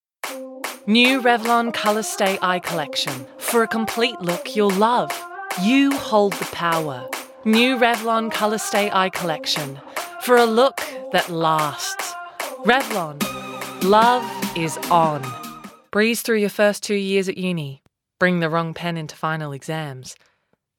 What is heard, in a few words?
Commercials